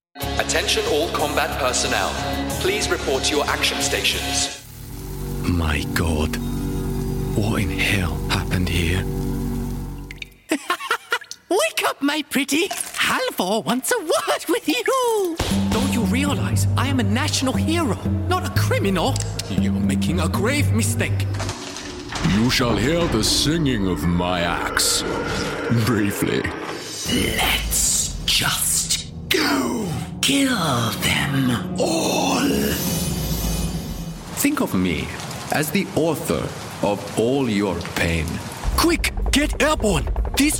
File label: Gaming and Character